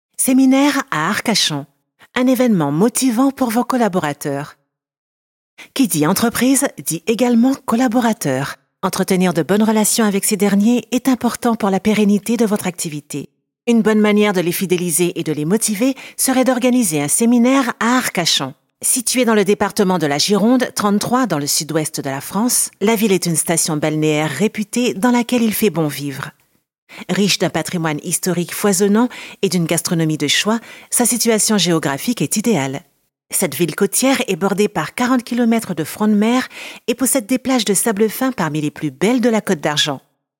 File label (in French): VOIX OFF; ARCACHON SEMINAIRE